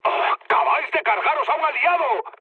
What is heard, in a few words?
player killed ally, mood low, speech; War Thunder